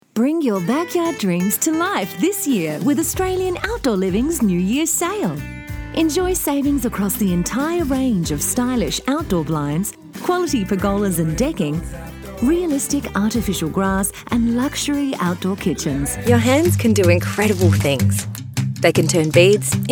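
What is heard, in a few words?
commercial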